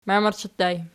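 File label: "mamerchi" ergative plural, Archi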